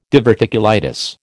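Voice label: "diverticulitis", American English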